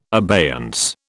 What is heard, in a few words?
"abeyance", British